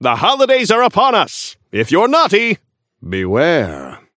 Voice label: Newscaster seasonal headline

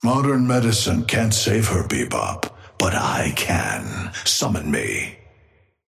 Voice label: male ally bebop start; Patron

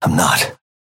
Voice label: synth match start atlas synth convo; Abrams

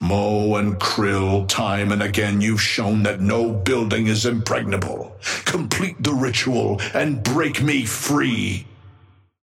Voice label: male; Patron; krill

